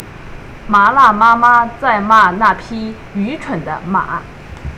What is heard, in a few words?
Mandarin